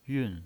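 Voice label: "yun" Mandarin Chinese pinyin voice, fourth tone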